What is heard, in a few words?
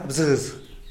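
"bzïz", Tlacochahuaya Zapotec; squirrel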